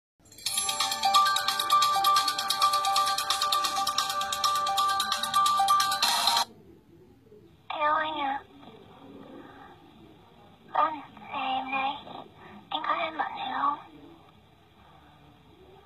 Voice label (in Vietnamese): doan ghi am noi em yeu anh giong nu